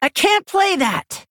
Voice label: Female Orc error play